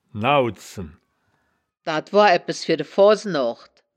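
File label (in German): "naudzen", wittlicher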